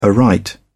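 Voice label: "aright", British English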